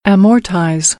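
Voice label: "amortize", American English; AmericanBritish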